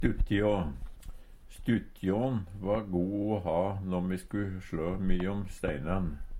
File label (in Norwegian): stuttjå, Numedalsmål; Substantiv hankjønn